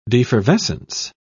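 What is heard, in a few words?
"defervescence", British English, dictionary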